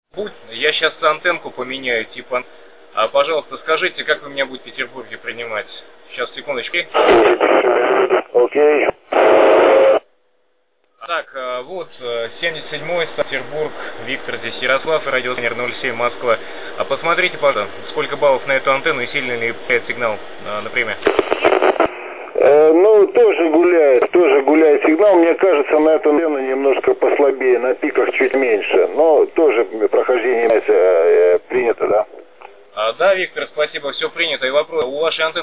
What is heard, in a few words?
qso